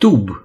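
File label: "tube", Romanian; gcompris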